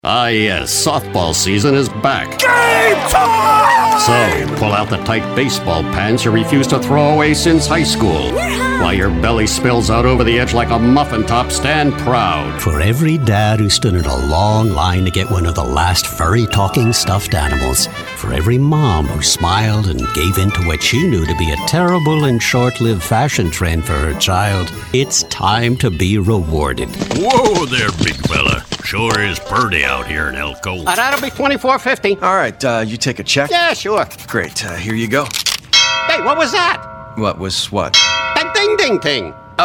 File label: men commercial; From whimsical storyteller to a whole cast of characters. Incredible range and naughty fun!